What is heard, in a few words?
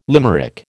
"limerick", American